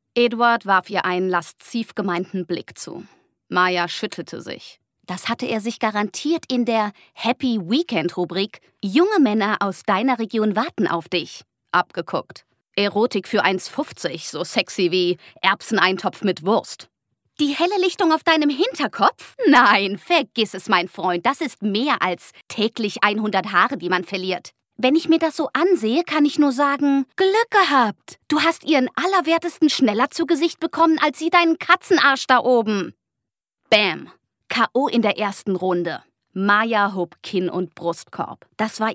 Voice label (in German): Hoerbuch cool frech zickig